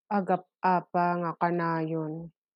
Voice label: Ilocano